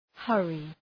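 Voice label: "hurry", English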